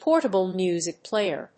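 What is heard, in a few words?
"PORTABLE MUSIC PLAYER", English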